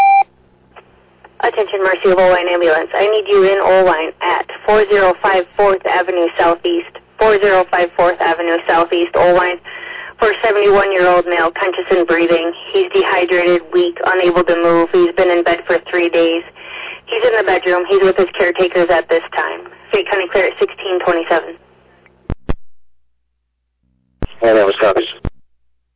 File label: Oelwein Ambulance, dispatch